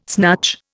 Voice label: "snatch", Indian

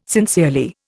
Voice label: "sincerely", Indian

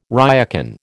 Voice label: "ryokan", American

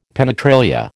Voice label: "penetralia", American

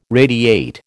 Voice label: "radiate", American

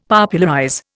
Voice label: "Popularize", Indian; Dictionary

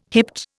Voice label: "hipped", Indian

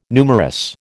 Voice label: "numerous", American

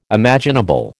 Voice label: "imaginable", American

